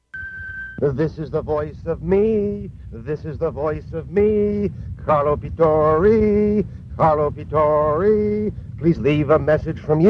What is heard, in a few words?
Phone Msg